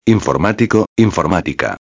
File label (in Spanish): "informatico a", espanhol